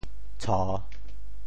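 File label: "tsho3", Teochew; 潮州; cuǒ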